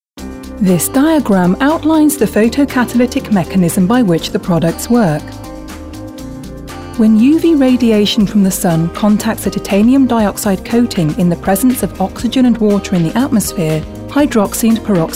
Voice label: Scientific narration